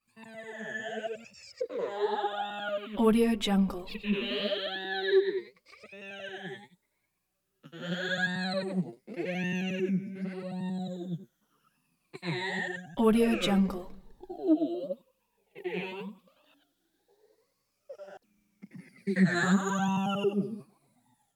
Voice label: creature vocal small voice child saying abcs

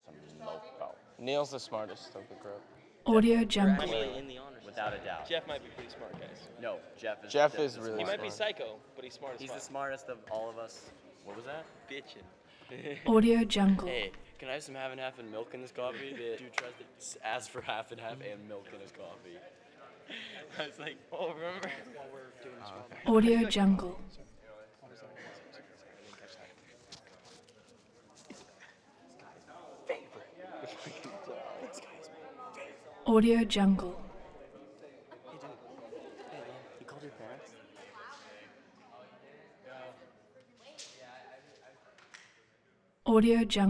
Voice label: kids chatter to silence; collanderson

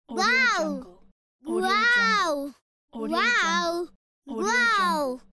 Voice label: little girl saying wow

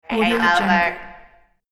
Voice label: game over robot voice

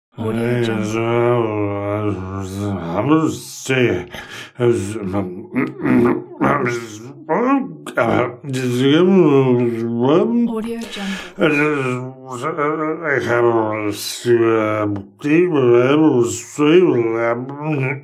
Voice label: drunk man babbling

